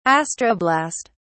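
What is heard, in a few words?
"astroblast", British English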